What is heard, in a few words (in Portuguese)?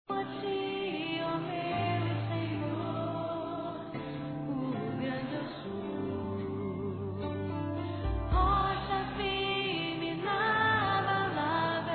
NATAL E ANO NOVO PAI; Telemensagens; fem; Misto